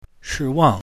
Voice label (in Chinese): "shi wang", Mandarin